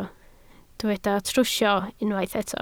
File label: "trwsio", Welsh